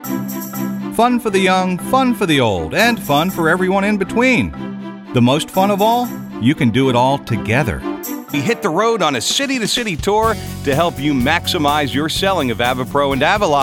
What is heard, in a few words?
Narration